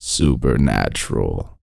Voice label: talk, sci-fi, vocal; ILIO; Vocal Planet